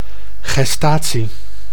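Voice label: "gestatie", Dutch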